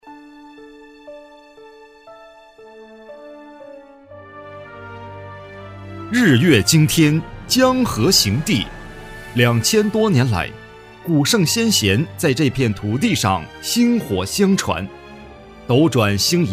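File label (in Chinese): 旅游城市宣传; 梅江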